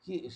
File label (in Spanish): "vaina", Zapoteco, Magdalena Ocotlan; Comestibles; INALI; Haspelmath